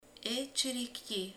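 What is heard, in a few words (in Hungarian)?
"madár", Hungarian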